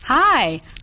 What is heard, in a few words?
hi, female; Voice; expletives